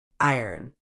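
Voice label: "iron", British English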